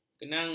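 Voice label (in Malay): "kenanga", Malay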